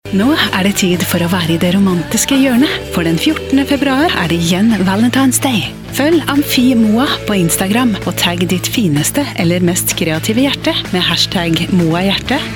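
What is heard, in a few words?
Norwegian, female, commercial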